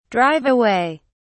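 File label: "drive away", British English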